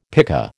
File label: "pika", American